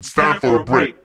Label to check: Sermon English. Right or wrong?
Wrong